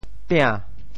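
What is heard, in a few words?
"pia~2", Teochew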